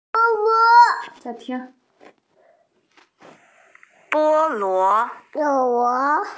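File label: "bo1 luo2", Mandarin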